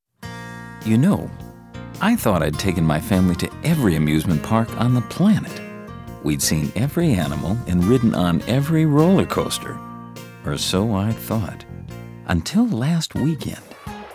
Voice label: Radio Spot; Hawaiian Waters Adventure Park